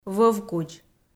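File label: "вӧвкудз", Komi, female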